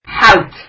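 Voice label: "hawt", Kurdish, female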